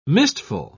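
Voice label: "mistful", British English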